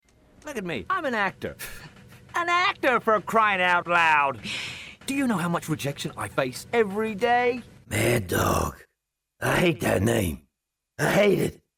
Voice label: ANIMATION DEMO